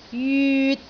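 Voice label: "jyut3", Cantonese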